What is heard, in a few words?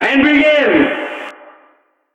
level announcer